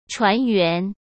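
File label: "船员", Mandarin Chinese; hsk; chinese-flashcards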